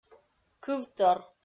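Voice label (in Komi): "кывтор", Komi, female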